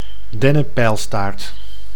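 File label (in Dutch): "dennenpijlstaart", Dutch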